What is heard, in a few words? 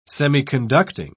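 "semiconducting", English, British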